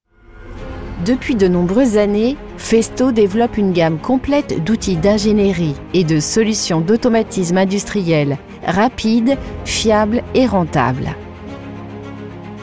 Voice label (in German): imagefilm; festo